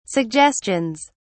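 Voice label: "suggestions", British English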